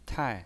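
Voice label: "tai", Mandarin Chinese pinyin, fourth tone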